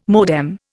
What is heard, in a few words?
"modem", Indian